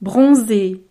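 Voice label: "bronzer", French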